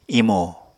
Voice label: "imo", Apatani